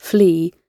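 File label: "flee", British English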